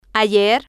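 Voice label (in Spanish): "ayer", Spanish, female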